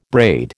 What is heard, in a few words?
"braid", American